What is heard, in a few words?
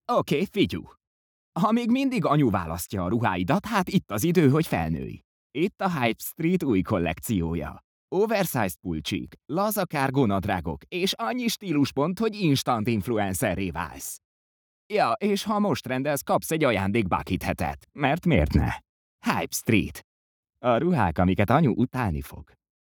male hungarian voice over upbeat